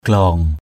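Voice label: "glaong", Eastern Cham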